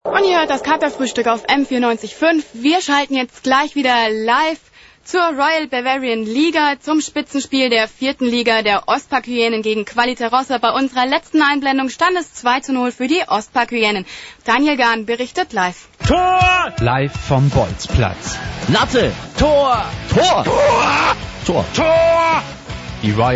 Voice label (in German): Radiospiel